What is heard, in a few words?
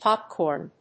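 "popcorn", English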